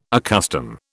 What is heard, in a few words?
"accustom", British